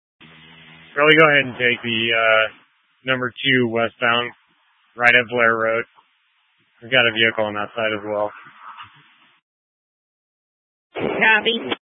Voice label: Fire Department Dispatch; Grand Junction